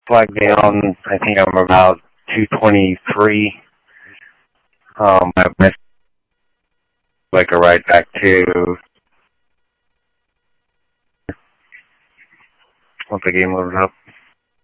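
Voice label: Sheriff Lake Patrol, scanner audio trunk; MCSO Lakes 1 SheriffLakePatro; ThompsonPeakScot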